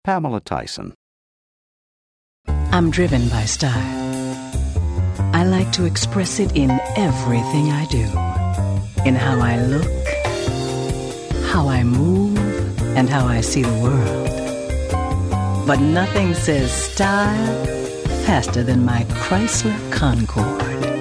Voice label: commercial; Innovative LA